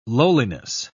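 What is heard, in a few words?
"lowliness", British English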